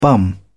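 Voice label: "bum", British English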